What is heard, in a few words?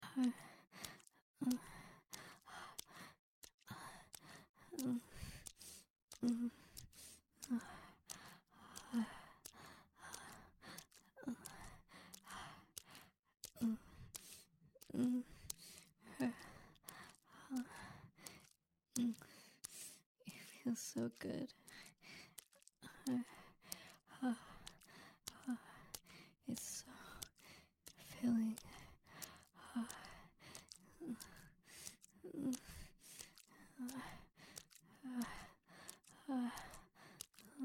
F4M, Moaning, Begging, Wet sounds; Good Girl Gets Pounded Missionary